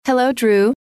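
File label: hello, female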